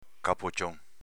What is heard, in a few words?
"capocion", dialetto veneto